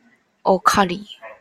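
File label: "alkali", Taiwanese, female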